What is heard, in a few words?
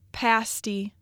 "Pasty", Michigan